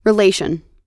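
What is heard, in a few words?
"relation", American English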